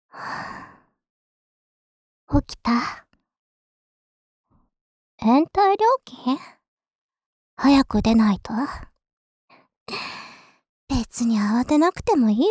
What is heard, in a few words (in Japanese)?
ピロートーク